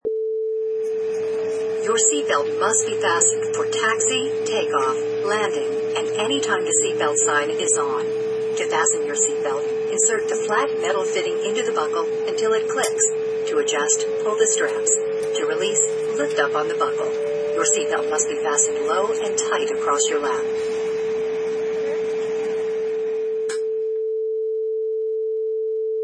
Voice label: Plane Safety Info